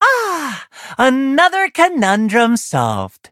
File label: levelup en; cookie run